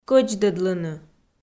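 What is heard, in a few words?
"кӧдздӧдлыны", Komi-Zyrian, female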